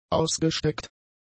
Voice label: "ausgesteckt", German; adjectives

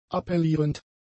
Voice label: "appellierend", German; adjectives

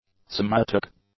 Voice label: "somatic", english; adjectives

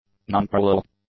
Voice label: "nonparallel", english; adjectives

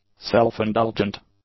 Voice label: "self-indulgent", English; adjectives

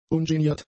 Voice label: "ungeniert", German; adjectives

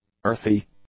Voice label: "earthy", English; adjectives

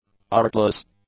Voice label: "artless", English; adjectives

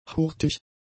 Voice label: "hurtig", German; adjectives

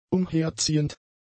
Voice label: "umherziehend", German; adjectives

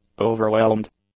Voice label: "overwhelmed", English; adjectives

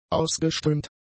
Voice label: "ausgeströmt", German; adjectives

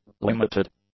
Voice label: "limited", english; adjectives

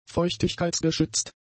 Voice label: "feuchtigkeitsgeschützt", German; adjectives

